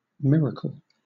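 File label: "miracle", British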